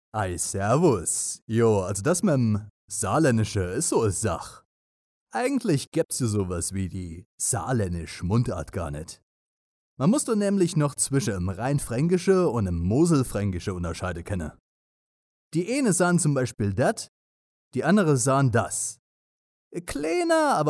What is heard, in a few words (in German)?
Saarlaendischer Dialekt